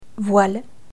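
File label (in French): "voile", French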